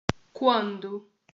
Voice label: "quando", portuguese

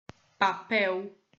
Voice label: "papel", Portuguese

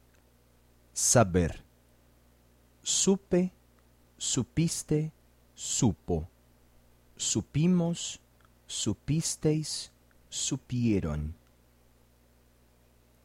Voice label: saber, spanish

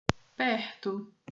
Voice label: "perto", portuguese

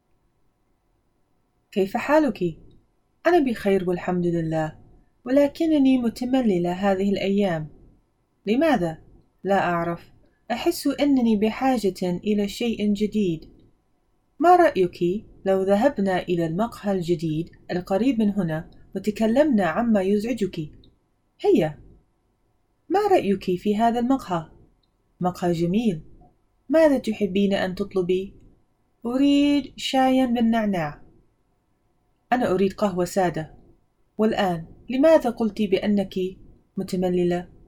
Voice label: convo two MSA, arabic

